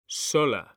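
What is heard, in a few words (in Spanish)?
"sola", Spanish; mekanta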